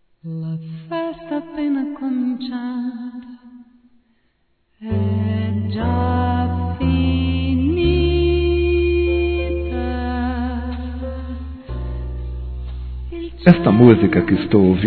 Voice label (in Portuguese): Declaração Amor Masculino; Telemensagens; Telemensagens 2017